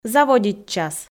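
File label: "заводитчас", Komi, female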